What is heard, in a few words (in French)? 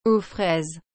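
"aux fraise", French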